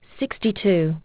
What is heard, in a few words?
aus, aussie prompts